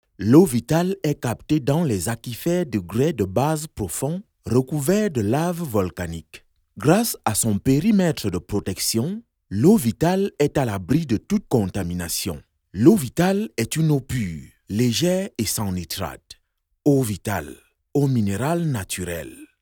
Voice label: Commercal

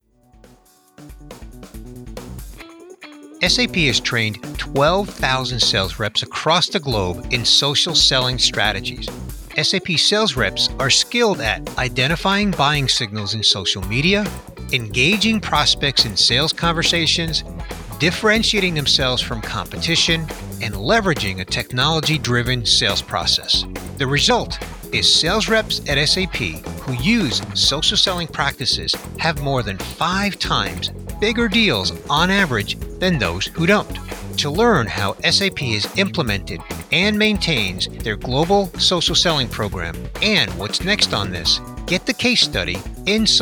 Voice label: Ad mix; SAP

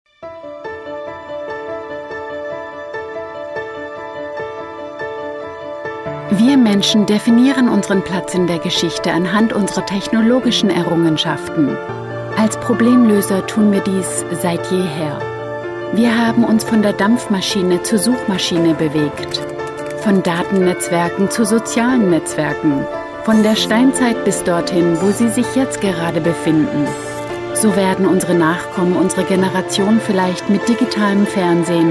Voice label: German; SINTEF

